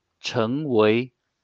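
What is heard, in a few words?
"成为", Chinese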